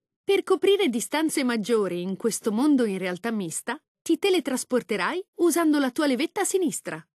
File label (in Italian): lang it it, voiceovers